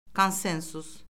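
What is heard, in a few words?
"konsensus", Azerbaijani; Orfoqrafiya Lüğəti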